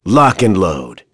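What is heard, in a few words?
Vox Skill, voices heroes English; kingsraid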